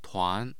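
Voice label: "tuan2", Mandarin Chinese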